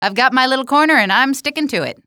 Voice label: little corner, female; Half-Life 2

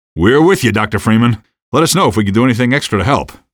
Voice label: squad train, male; Half-Life 2

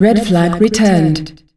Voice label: Red Flag Returned, female announcer; Unreal Tournament; ut2004

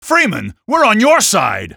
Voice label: on your side, male; Half-Life 2

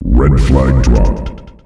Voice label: Red Flag Dropped, announcer male; Unreal Tournament; ut2004

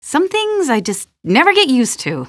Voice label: question, female

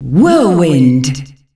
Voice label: "Whirlwind", announcer female; Unreal Tournament; ut2004

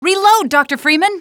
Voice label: reload, female; Half-Life 2

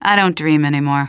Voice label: question, female; Half-Life 2

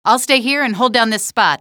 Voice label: hold down spot, female; Half-Life 2

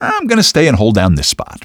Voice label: hold down spot, male; Half-Life 2

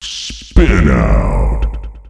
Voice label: Spin Out, announcer male; Unreal Tournament; ut2004

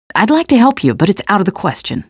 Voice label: pedestrian, female; Half-Life 2; trainyard

